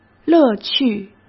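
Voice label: "乐趣", Mandarin Chinese; hsk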